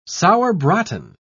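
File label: "sauerbraten", English, British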